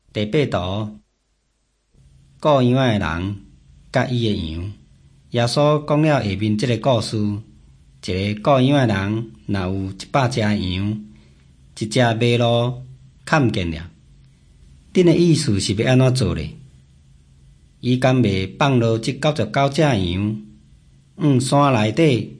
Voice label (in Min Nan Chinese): taiwanese, drama; hokkien; taiwanese min nan; jesus teacher and healer